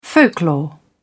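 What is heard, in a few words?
"folklore", British English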